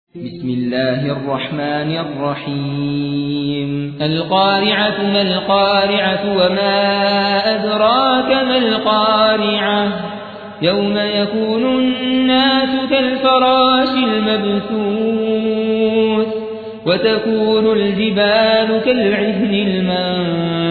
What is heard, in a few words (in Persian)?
ترتیل سوره قارعه